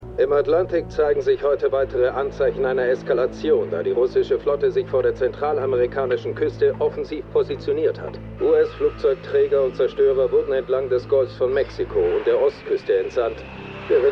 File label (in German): Nachrichtensprecher; For All Mankind